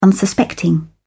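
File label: "unsuspecting", British English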